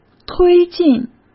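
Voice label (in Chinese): "推进" Mandarin Chinese; chinese-flashcards; hsk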